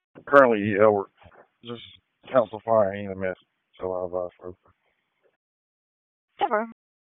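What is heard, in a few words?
Police Southwest District Dispatch; Raleigh Police Southwest District Disp; wake p25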